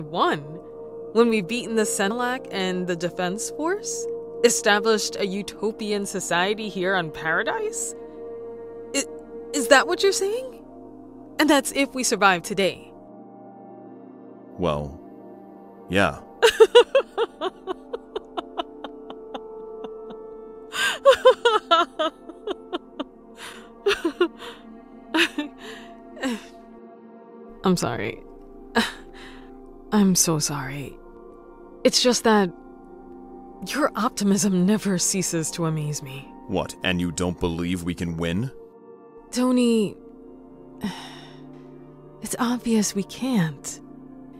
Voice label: english american video games